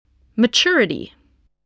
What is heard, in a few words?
"maturity", spelling voice